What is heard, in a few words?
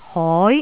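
"hoi2", Cantonese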